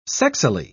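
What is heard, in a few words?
"sexily", British English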